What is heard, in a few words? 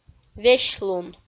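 "весьлун", Komi, female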